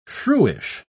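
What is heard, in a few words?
"shrewish", British English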